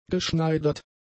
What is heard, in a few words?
"geschneidert", German; adjectives